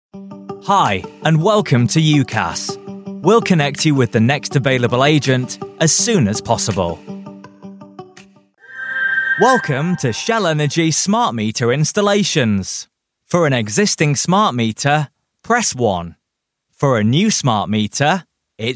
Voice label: ivr telephone